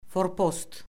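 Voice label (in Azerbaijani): "forpost", Azerbaijani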